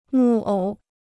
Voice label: "木偶", Chinese